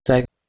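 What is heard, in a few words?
"teg", Proto-Indo-European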